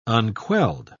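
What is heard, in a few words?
"unquelled", British English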